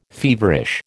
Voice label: "feverish", American